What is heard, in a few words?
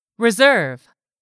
"RESERVE", english; 3000 words